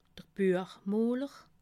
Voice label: "buech moler", alsace

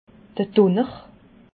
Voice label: "dunner", alsace

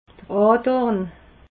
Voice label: "andorn", alsace

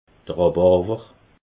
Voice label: "rhabarwer", alsace

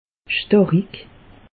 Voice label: "starik", alsace; meteo

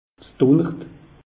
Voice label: "dunnert", alsace; meteo

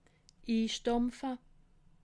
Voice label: "instampfe", alsace

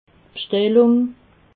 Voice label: "bstellung", alsace

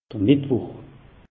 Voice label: "mettwoch", alsace; meteo

